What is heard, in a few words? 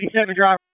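Police Spec Ops Tac, radio; Raleigh; wake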